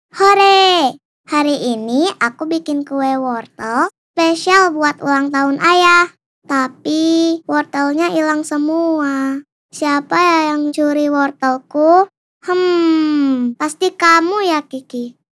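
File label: child CHARACTER, soft, gentle voice; INDONESIA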